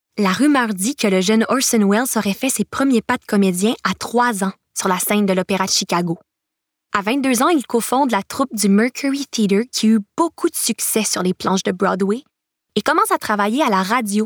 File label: narration